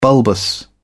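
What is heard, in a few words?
"bulbous", British English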